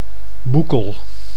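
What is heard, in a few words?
"Boekel", Dutch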